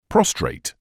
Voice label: "prostrate", English

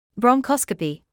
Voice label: "bronchoscopy", English

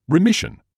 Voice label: "remission", English

